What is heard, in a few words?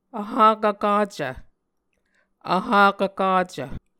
"vhakv kacv", Muscogee